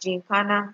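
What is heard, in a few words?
"ginkana", Nigerian Pidgin, female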